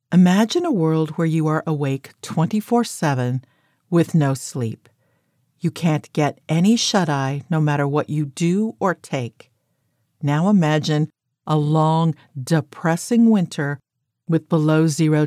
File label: Female 1st person Nonfiction